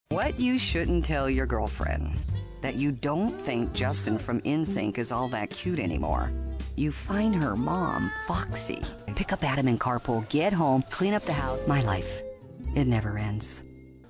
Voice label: character